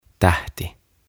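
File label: "Tähti", Finnish; Star; OTAVA KONSERNI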